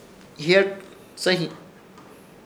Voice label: "Ihyẽrzsẽhi", Ishir Chamacoco; jadear